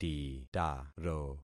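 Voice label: "titaro", concatenated syllables; Affixing experiment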